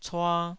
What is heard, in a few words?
"cua2", Taiwanese